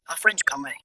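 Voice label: VoiceActing es, Male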